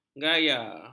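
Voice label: "gaya", Malay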